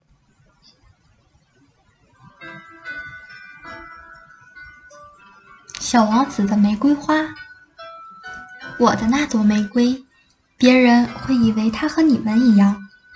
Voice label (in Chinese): 有声书; 小王子的玫瑰花有声书